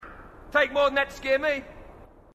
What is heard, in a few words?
marine, aussie; h2dialogue